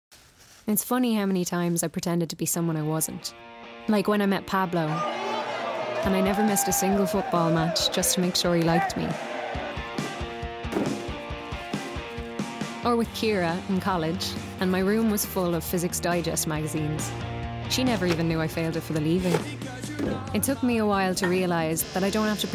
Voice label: COMMERCIAL TEA; BARRY'S TEA